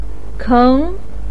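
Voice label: "keng", Mandarin Chinese, second tone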